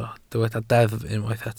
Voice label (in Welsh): "deddf" Welsh